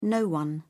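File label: "no one", British English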